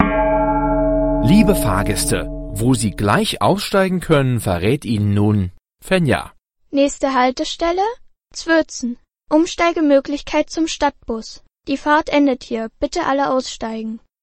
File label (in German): Ansage